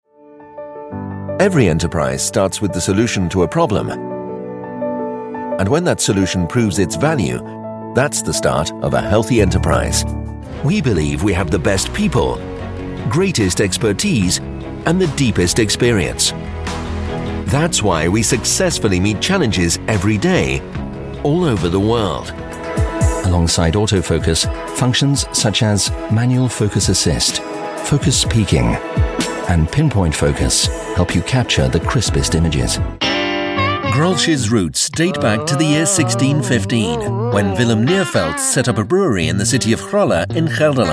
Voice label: corporate